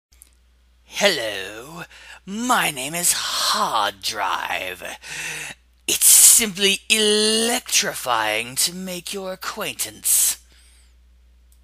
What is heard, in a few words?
hard drive voice